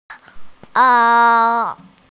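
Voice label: "er3", Mandarin Chinese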